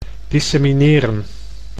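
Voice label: "dissemineren", Dutch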